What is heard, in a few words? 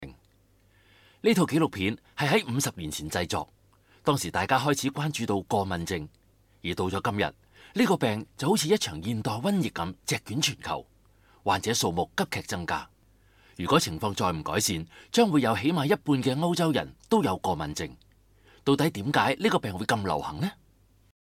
CANTONESE